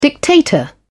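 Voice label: "dictator", British English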